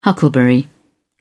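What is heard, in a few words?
"huckleberry", English, uk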